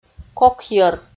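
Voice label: "кокйӧр" Komi, female; audio dict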